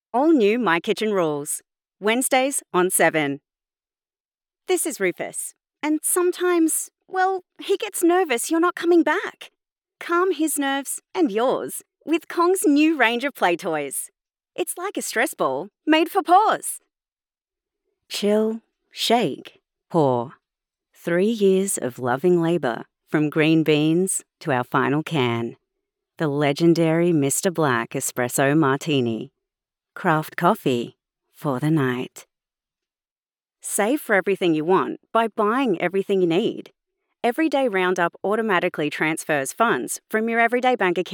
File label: voiceover commercial clean